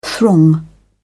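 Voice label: "throng", British English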